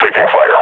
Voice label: under attack, combine grunt; Half Life Model Pack